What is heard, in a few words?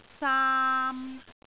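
"saam3", Cantonese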